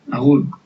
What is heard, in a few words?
goodbye, basque